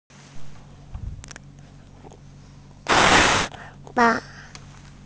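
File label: "fa4", Mandarin Chinese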